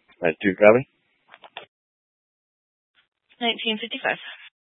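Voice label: County Fire, Scanner audio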